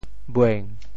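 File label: "bueng7", Teochew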